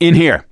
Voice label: misc directional, voice; gambler